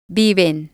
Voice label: "viven", Spanish

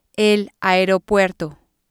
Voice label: "airport" Spanish

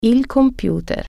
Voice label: computer, italian

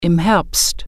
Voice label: "during fall", German

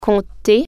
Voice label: "count", French

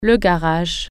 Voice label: "garage", French

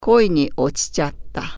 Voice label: i am in love female, japanese

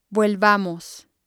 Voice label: "vuelvamos", Spanish

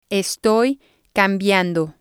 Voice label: "estoy cambiando", spanish

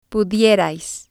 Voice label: "pudierais", Spanish

